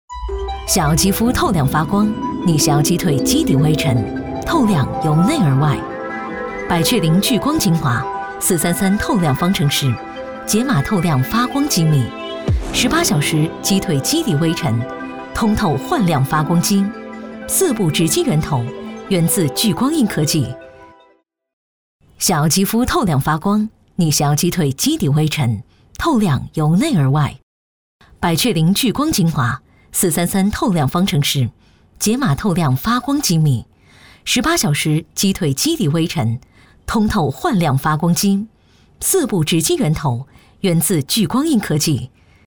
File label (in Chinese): 女 高端化妆品广告; 百雀羚聚光精华